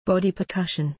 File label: body percussion